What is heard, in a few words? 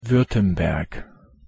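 pronunciation german württemberg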